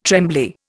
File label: "trembly", Indian